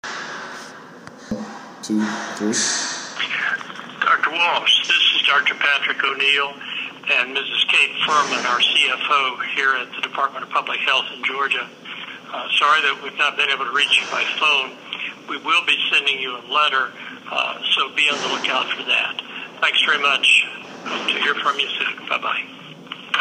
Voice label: Voicemail